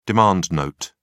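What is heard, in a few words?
"demand note", British English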